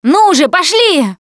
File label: Move On, Survivor Voice; russian; Producer